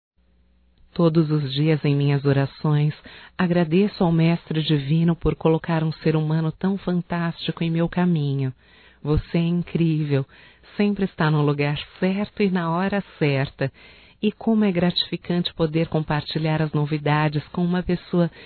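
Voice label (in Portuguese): AMIZADE (Feminino), Telemensagens, Homenagens; Telemensagens 2017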